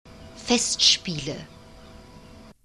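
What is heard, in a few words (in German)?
"Festspiele", German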